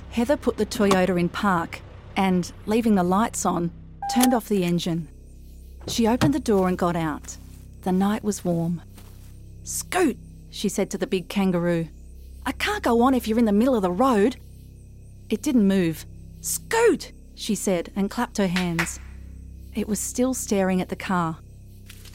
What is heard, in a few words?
audiobook